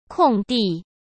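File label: "空地", Mandarin Chinese; chinese-flashcards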